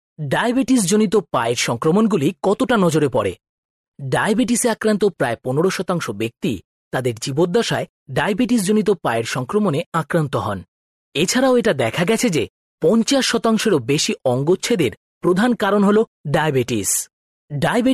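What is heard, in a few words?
Bengali, male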